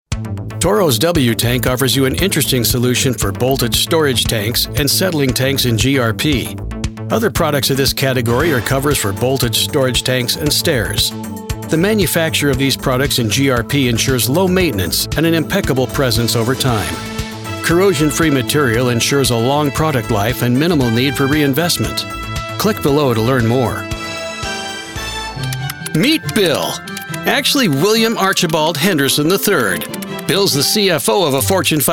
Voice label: Corporate Narration